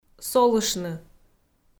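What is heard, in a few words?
"солыштны", Komi-Zyrian, female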